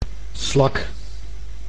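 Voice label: "slak", Dutch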